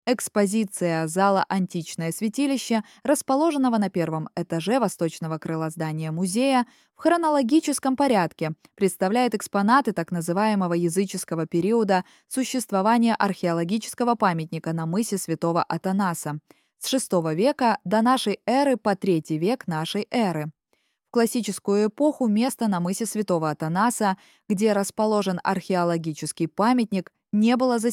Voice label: Museum Russian; музейный аудиогид